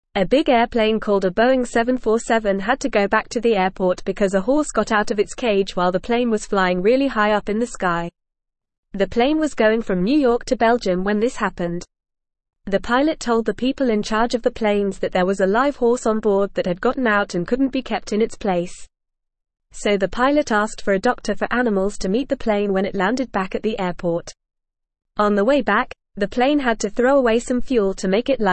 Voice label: English Upper Intermediate FAST Reading; Horse chaos forces cargo jet to turn back; English Newsroom